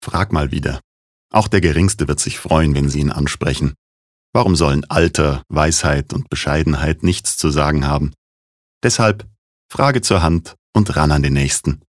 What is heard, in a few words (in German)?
Werbung Sanft